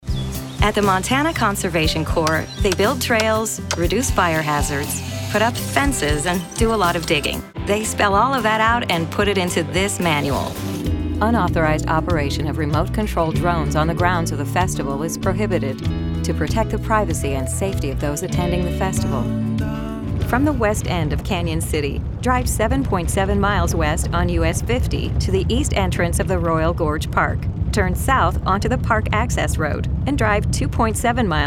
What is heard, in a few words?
Explainer